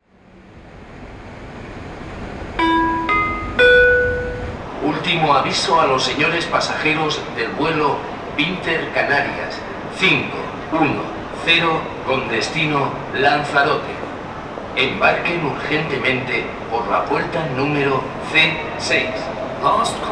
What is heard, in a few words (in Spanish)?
ultimo avisto, Flughafen Ansagen; Intercanarias